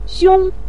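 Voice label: "xiong" neutral tone, Mandarin Chinese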